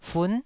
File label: "fun9", Taiwanese syllable